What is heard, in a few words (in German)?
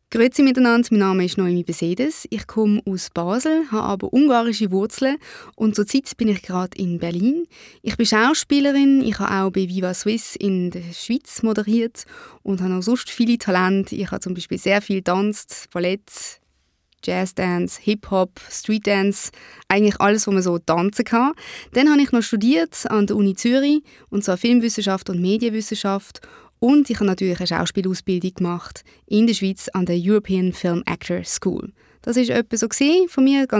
Vorstellung schwietzerduetsch